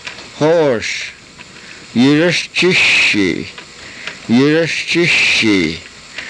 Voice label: "yuraschishiih", karuk